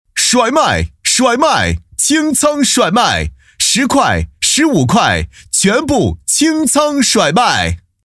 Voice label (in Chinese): 十元甩卖清仓 男 激情浑厚; 促销